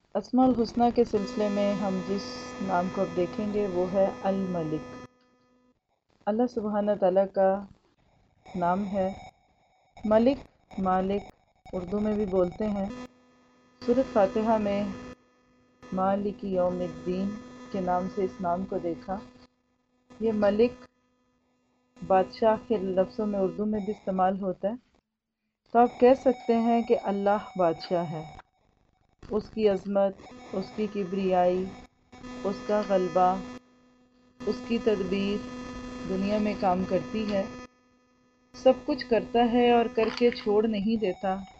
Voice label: mic noise in background; Asma al Husna; Al Malik